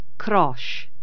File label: cajun french